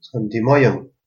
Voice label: help, albanian gheg

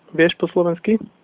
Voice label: do you speak, slovak; Vieš po slovensky?

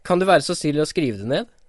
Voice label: "write", Norwegian

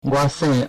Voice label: my name is taiwanese; 我姓 ...; góa sèⁿ ...; Chinese (Taiwanese)

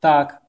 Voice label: yes a little, belarusian; Так; Tak; Do you speak Belarusian?

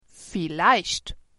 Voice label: maybe, german

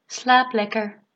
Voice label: good night, dutch

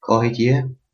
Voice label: where from, albanian gheg

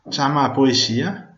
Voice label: police, Venetian

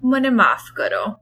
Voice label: sorry, gujarati

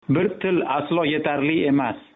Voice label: one language uzbek

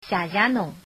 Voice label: thanks shanghainese

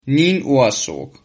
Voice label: you're welcome, Sylt Frisian

